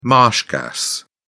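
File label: "marsh gas", British English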